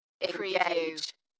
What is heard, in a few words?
UK female "Engage" Dalekness; Tech / Sci-fi; beanstalk audio